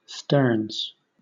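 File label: "sterns", British English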